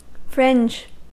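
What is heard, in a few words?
"fringe", American English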